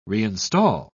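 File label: "reinstall", British English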